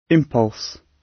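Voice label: "impulse" British English; The arts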